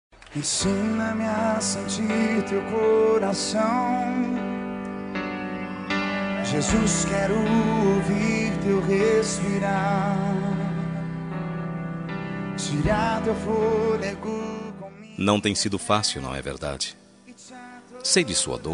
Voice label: pesames, Telemensagem Pêsames – Voz Masculina

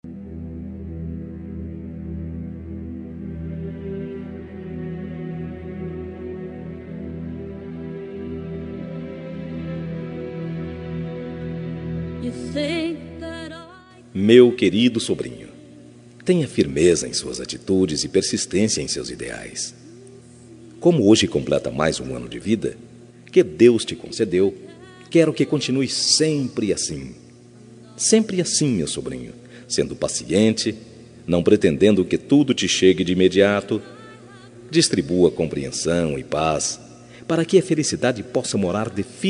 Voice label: Voz Masculina; Aniversário de Sobrinho

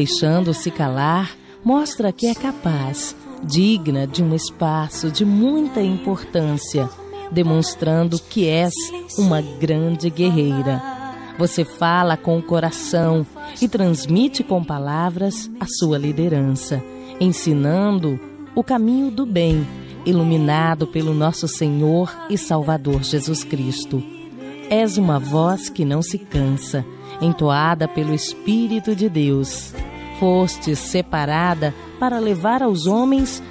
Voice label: pastora fem

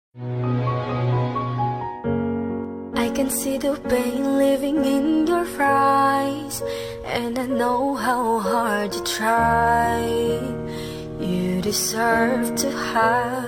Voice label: dia dos namorados amante, voz feminina; dia dos namorados amantes

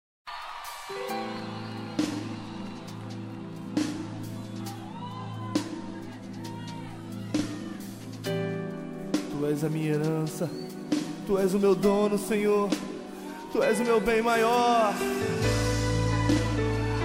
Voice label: namorada masc gospel, Aniversário Namorada Gospel – Voz Masculina

